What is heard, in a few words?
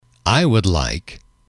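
"I Would Like", male, english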